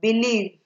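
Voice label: "believe", Nigerian Pidgin, female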